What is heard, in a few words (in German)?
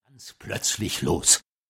Erzaehler